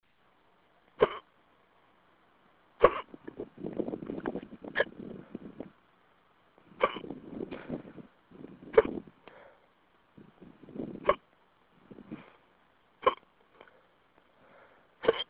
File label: phone msg